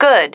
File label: "good", female